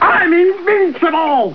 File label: male taunt; starsiege